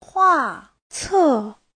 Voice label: "hua4ce4", chinese